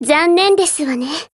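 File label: LOW MOOD, Japanese; DesertEagle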